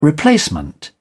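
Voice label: "replacement", British English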